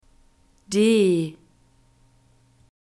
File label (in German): "d", German alphabet